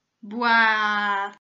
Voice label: "buáá", portuguese